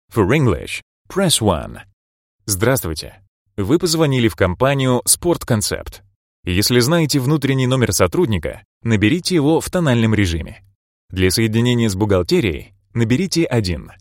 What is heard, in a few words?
IVR